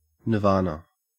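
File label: "nirvana", Australian English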